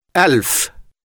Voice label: number thousand Arabic

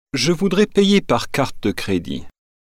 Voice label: credit card French

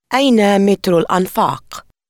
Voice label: where sub arabic

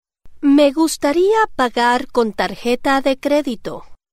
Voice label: credit card Spanish

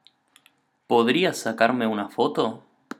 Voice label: Can You Take A Photo Spanish

